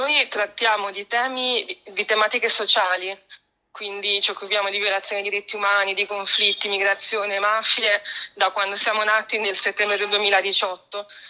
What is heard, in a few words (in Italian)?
Intervista